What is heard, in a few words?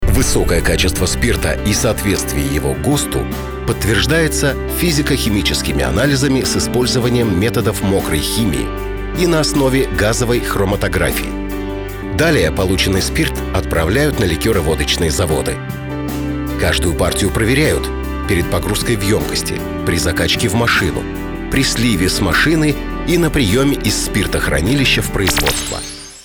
presentation